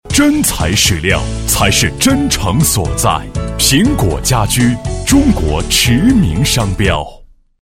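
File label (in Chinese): 广告家居; 苹果家居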